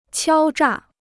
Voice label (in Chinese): "敲诈", Chinese